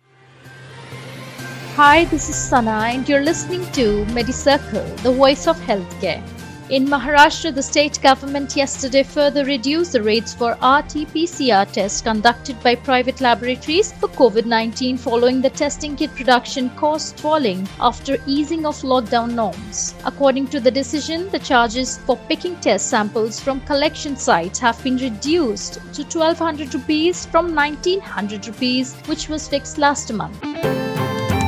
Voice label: english news